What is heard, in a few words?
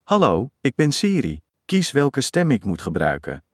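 Dutch; iOS Sounds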